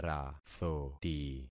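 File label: "rathoti", concatenated syllables; Affixing experiment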